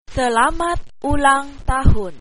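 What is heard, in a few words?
happy birthday indonesian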